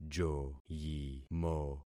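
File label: "joyimo", concatenated syllables; Affixing experiment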